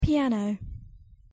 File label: "piano", English pronunciation